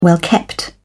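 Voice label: "well-kept", British English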